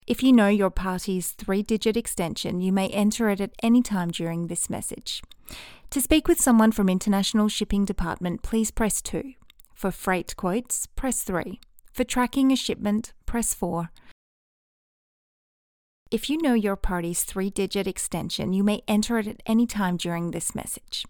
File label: raw Australian and US accents